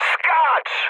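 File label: bailout, mood high, Polish speech; War Thunder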